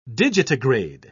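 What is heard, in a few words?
"digitigrade", en, British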